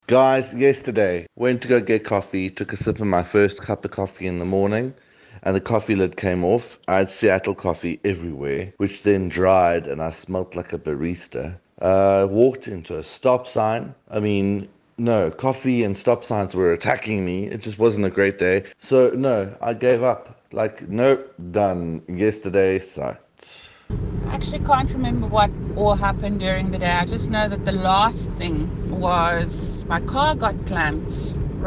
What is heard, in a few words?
sad voice notes